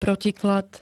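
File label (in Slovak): "protiklad", Slovak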